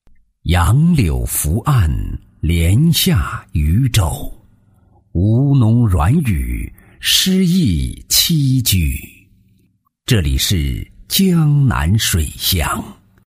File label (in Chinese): 男声 磁性浑厚 水利; 武进; 国语男声配音; 磁性浑厚抒情; 男9磁性浑厚抒情